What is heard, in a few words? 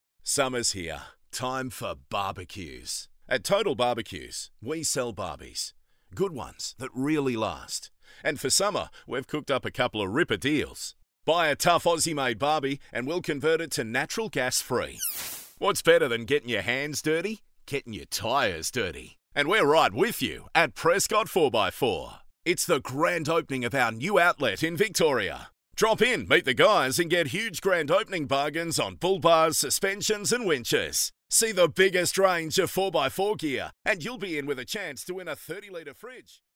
Tradie Blokey